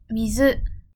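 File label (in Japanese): "水", Japanese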